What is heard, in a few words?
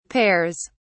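"pears", British English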